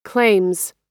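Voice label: "claims", US English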